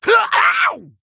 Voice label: Male Ghoul Death